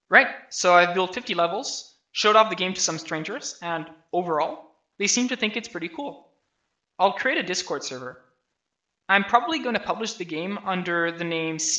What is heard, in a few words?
voice, narration